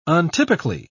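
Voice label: "untypically", English, British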